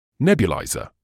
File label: "nebuliser", English